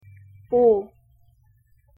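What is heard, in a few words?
"ㅗ", Korean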